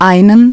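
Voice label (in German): "einen", German; DISTEFORA Navigation; Mobile Navigator Notebook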